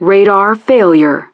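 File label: "Radar Failure", English; DCS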